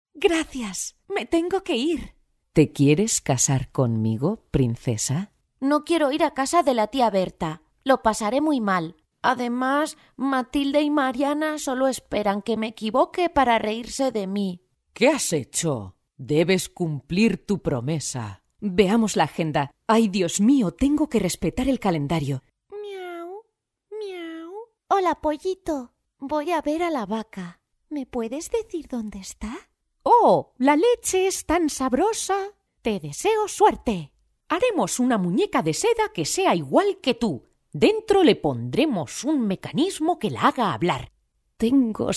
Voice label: castilian female character

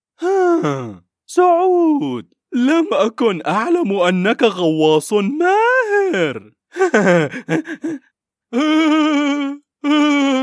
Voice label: arabic male character

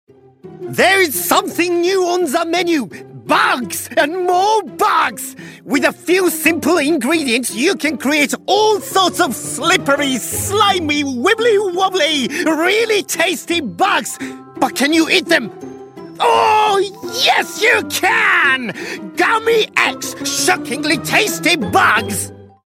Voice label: japanese kids commercial english

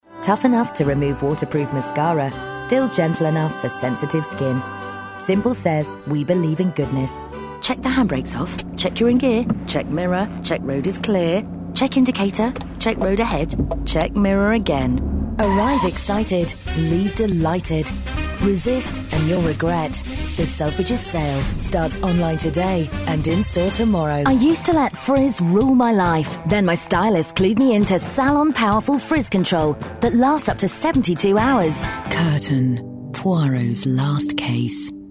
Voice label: commercial

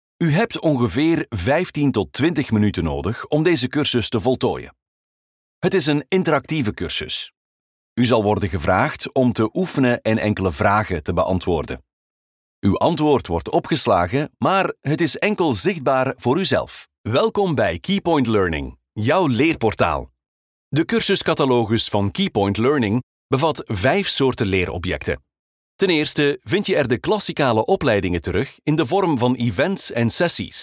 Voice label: flemish voice elearning

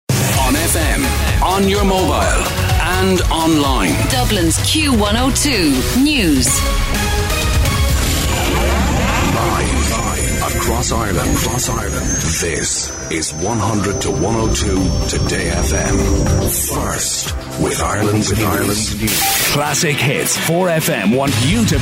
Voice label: imaging

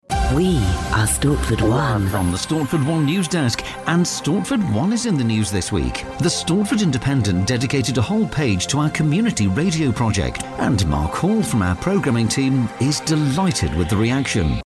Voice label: news reading

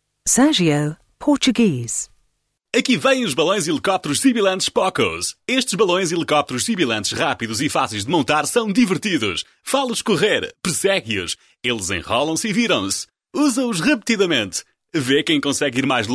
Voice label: portuguese commercial

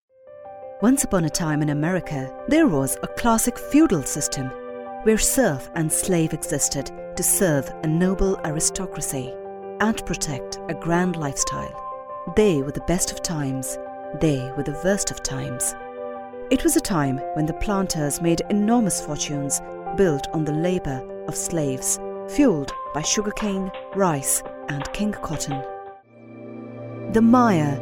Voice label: hindi female english documentary

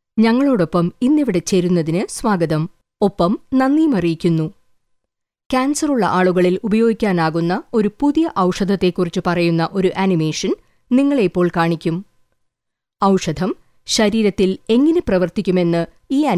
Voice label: corporate

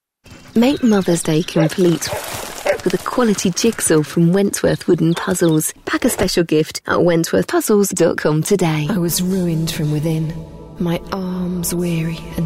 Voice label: commercial

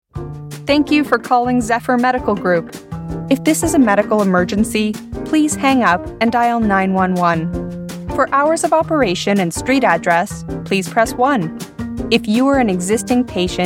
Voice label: telephone

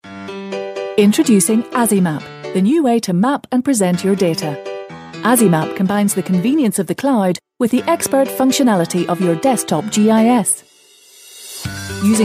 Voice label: northern irish web promo

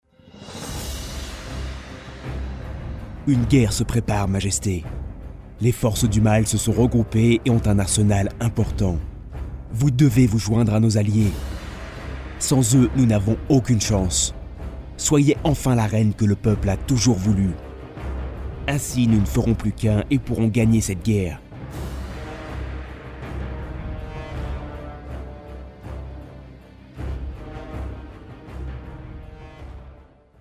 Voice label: french male video game

